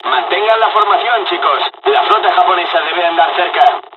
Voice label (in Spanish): dialog, dialogs spanish; us midway; War Thunder